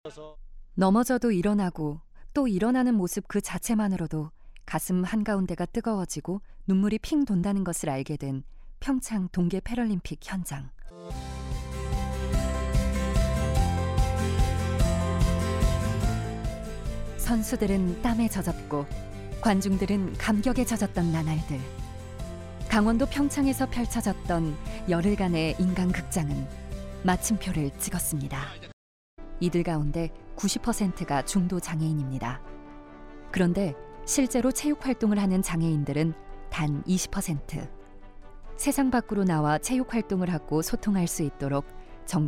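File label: Korean, female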